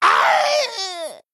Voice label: Male Gnome Death